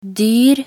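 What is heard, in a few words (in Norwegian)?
"dyr", Norwegian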